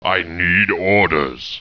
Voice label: annoyed; war2; humans; knight; I need orders; alliance